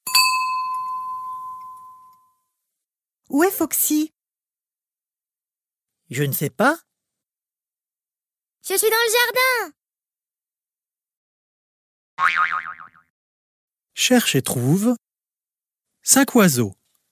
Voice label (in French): Ma maison Dialogue; Cherche et Trouve